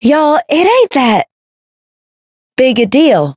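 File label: Voice encounter fear; hoozuki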